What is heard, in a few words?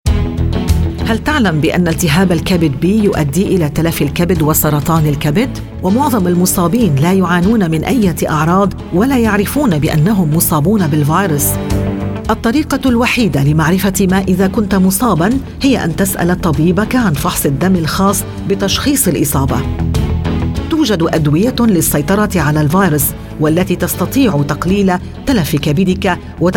Announcement, arabic; HepB; PSA